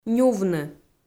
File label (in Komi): "нювны", Komi